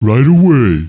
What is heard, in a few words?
acknowledge, ogre; ogre-mage